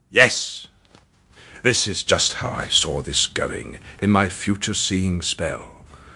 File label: happy, speech; fertig classic games; zanthor